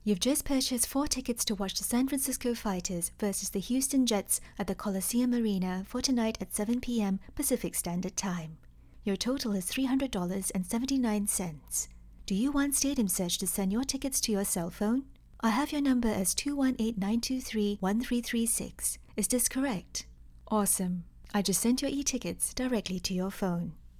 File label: english international audio guides, singaporean english; Una actriz de voz cálida, amigable y versátil con atractivo global.; Audioguías